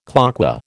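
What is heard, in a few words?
"cloqué", American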